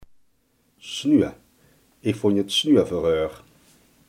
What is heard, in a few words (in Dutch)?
"sneu", Limburgs